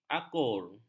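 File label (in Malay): "akur", Malay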